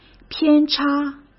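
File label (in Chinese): "偏差", Mandarin Chinese; chinese flashcards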